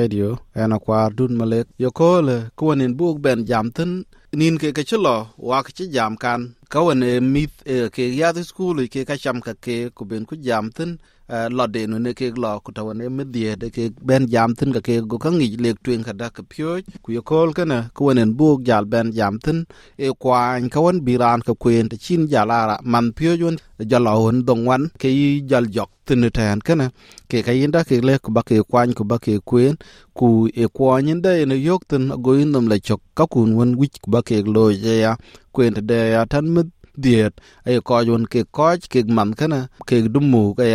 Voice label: dinka; SBS Dinka